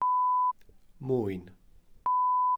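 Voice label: "mwyn", Welsh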